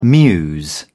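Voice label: "muse", British English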